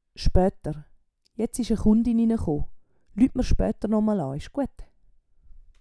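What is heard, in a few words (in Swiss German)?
"schpoeter", Swiss German; Wortschatz Kapitel 8; Kapitel 8 Zusatzwortschatz 5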